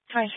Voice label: Sheriff Dispatch, scanner audio

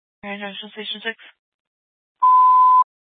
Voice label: Fire Department Dispatch; Grand Junction

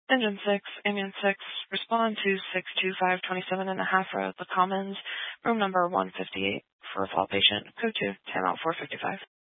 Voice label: Fire Department Dispatch; Grand Junction

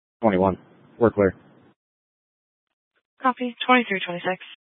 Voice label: Fire Department Dispatch, scanner; Grand Junction

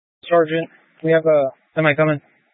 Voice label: Incident Tac 3, scanner

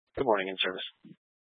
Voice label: Sheriff Dispatch, scanner; Mesa County

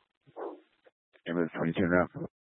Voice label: Grand Junction Fire Department Dispatch

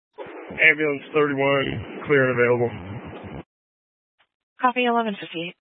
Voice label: Fire Department Dispatch; Grand Junction

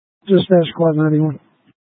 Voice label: Fire Dispatch

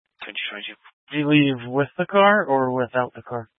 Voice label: Mesa County Sheriff Dispatch

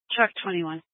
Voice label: Grand Junction Fire Department Dispatch

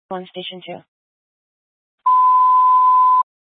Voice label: Grand Junction Fire Department Dispatch

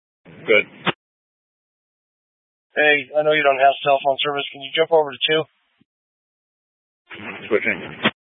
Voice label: Sheriff Dispatch; Mesa County

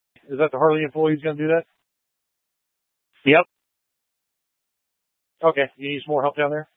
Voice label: Tactical Incidents, scanner

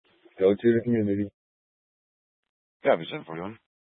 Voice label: Grand Junction Fire Department Dispatch

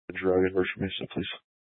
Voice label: Police Department Dispatch, scanner

